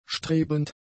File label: "strebend", German; adjectives